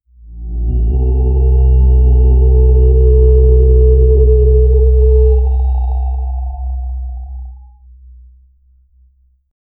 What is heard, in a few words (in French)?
voix grave et rauque; deep voice